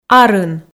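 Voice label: "арын", Komi, female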